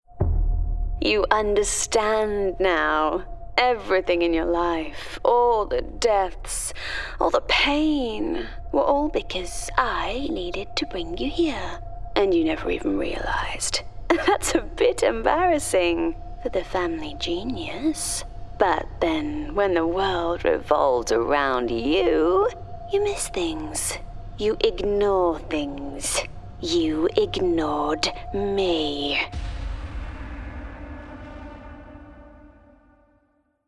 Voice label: Gaming Villain RP

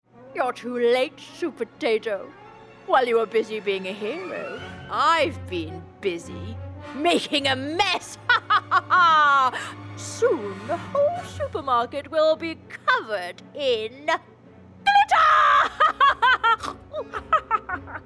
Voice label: Animation Villainous Pea

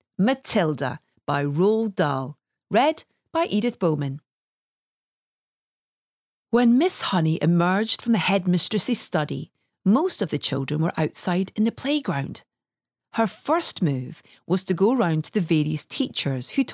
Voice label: Bookread; Matilda by Roald Dahl

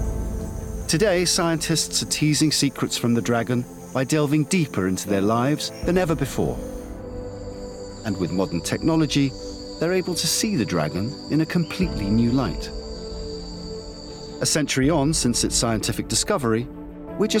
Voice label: Natural World Doc

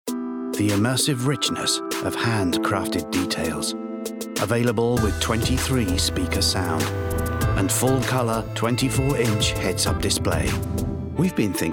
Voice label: Commercials Montage

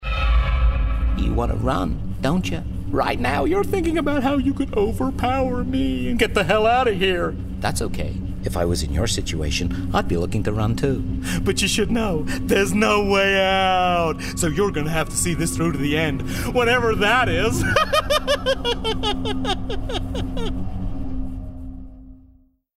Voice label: Gaming Crazy Villain US

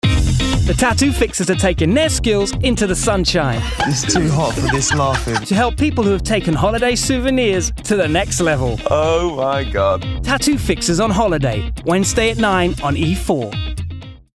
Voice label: Promo; Tattoo Fixers

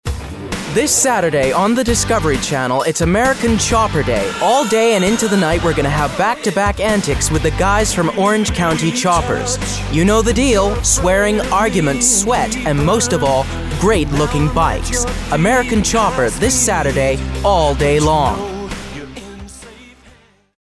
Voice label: Advert; American Chopper

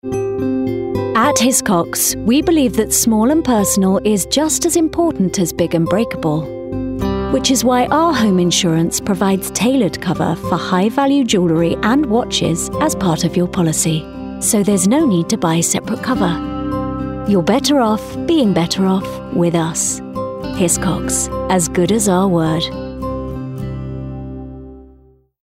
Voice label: Commercial; Hiscox

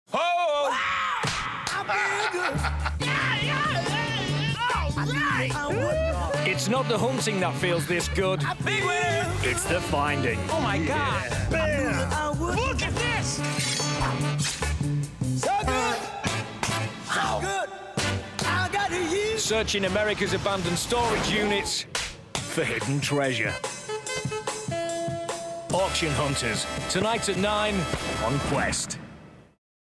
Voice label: PROMO Bright Upbeat Regular Guy; Auction Hunters 2

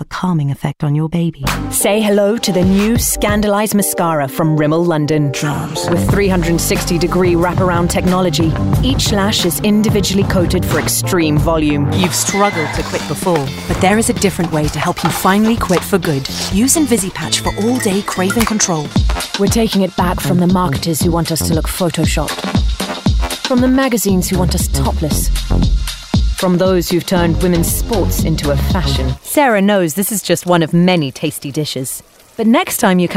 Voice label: Commercial Voicereel

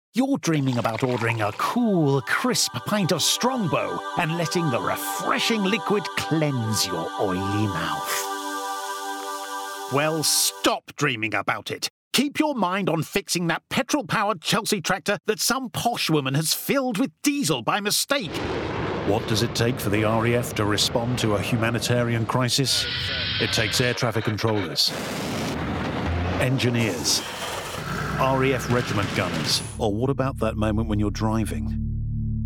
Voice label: Commercials Montage

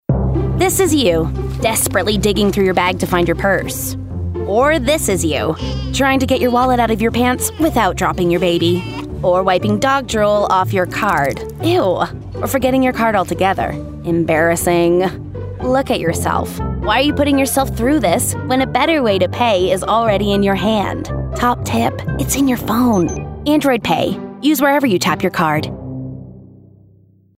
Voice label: Commercial; Android Pay

